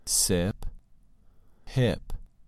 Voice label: "sip" "hip"